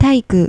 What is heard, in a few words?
"taiiku", Japanese